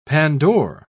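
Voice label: "pandore", British English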